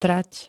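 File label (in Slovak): "trať", Slovak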